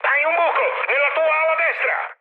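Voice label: Italian right wing mood high, speech; War Thunder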